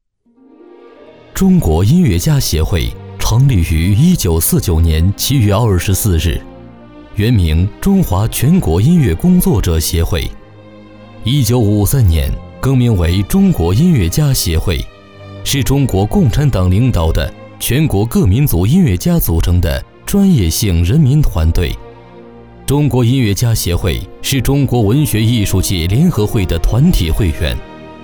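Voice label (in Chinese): 国语 男声 专题片-解说 大气浑厚磁性 沉稳 娓娓道来; 中国音乐家协会简介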